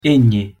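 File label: "n", Spanish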